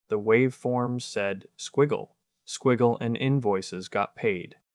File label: Bold and animated, suited to high-energy content that needs presence.; boldanimatedpromotional